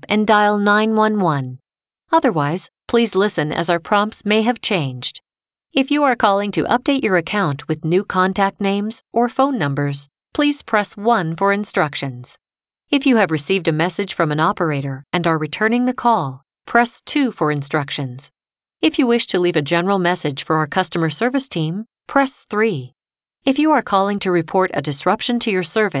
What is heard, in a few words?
after hours greeting